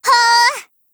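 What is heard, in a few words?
Japanese; M60; FEED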